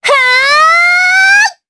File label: Vox Casting, jp; Erze; kingsraid